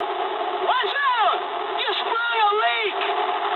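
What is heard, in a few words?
damaged water mood high, speech English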